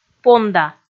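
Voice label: "понда", Komi, female; kpv audio dict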